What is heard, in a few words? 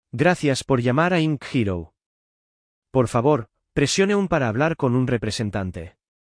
full, phone spain